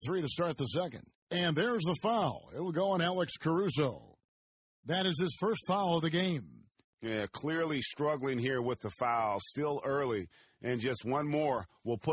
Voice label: 广播解说中间; 战中; 战斗音效; nba

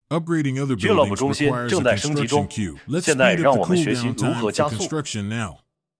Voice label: 升级其它建筑需要建设队列，现在让我们加速建筑, 引导对话男; nba

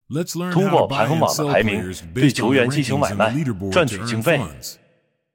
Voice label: 引导对话男; 通过排行榜的排名; nba

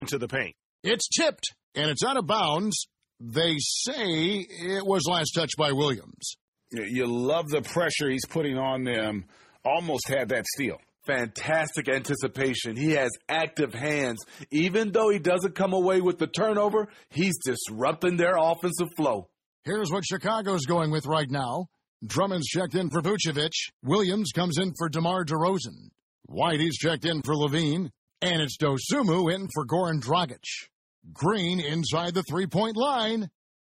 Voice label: 广播解说结束; 战中; 战斗音效; nba

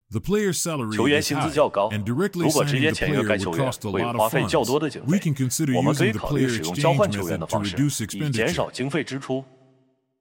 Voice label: 球员薪资较高，直接签约, 引导对话男; nba

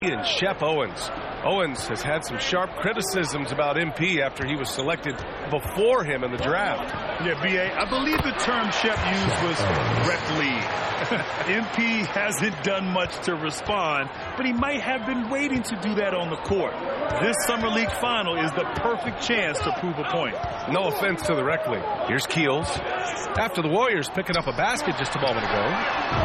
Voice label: 广播解说中间; 战中; 战斗音效; nba

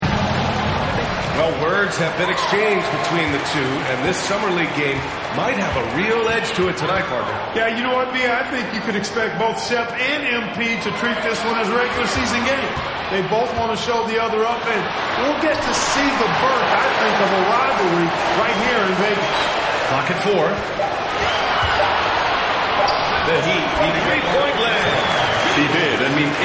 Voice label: normal解说音效; 战中; 战斗音效; nba

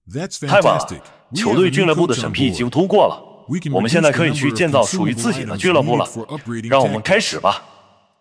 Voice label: 引导对话男; 太好了，我们拥有了新的教练; nba

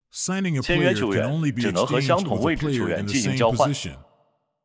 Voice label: 引导对话男; 签约球员只能和相同; nba

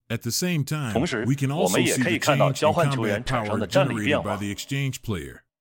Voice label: 引导对话男; 同时，我们也可以看到交换球员产生的战力; nba

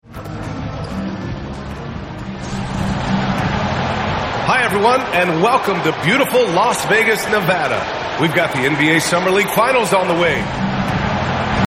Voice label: start解说音效; 战中; 战斗音效; nba

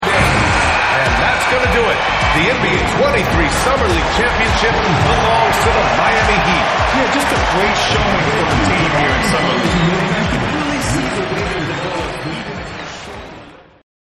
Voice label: 解说音效; 战斗音效; 战中; nba

